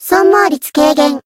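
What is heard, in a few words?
healed, Japanese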